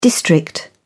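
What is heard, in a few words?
"district", British English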